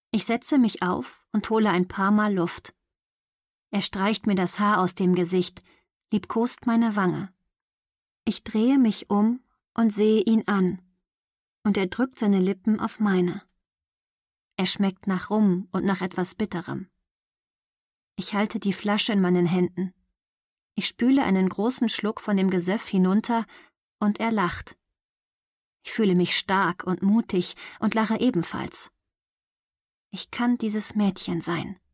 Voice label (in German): Hörbuch; Ich beobachte dich